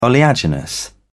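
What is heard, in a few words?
"oleaginous", British English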